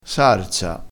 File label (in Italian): "sarsa", vocabolario dialettale